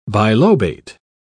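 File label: "bilobate", English, uk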